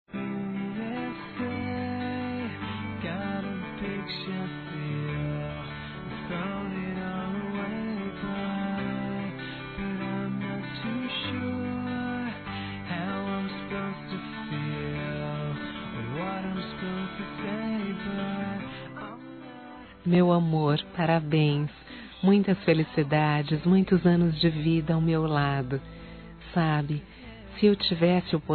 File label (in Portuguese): ANIV. ROMANTICO F